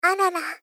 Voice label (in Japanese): Gentle Failure Arara; Rei Yumesaki